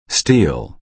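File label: "steal", American English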